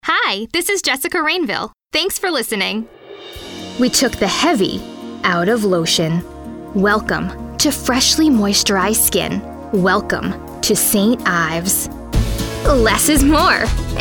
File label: Voiceover